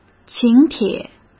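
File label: "请帖", Mandarin Chinese; chinese-flashcards; hsk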